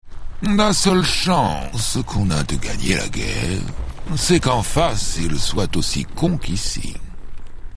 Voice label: Hero Archmage Pissed; Warcraft 3